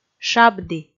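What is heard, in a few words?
"шабді", Komi, female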